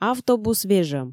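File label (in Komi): автобус вежӧм, Komi, female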